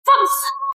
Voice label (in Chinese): "放肆"（女声）